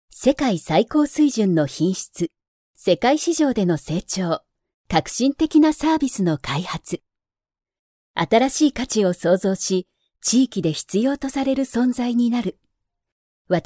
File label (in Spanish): locutora japonesa japanese voiceover